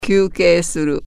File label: break, japanese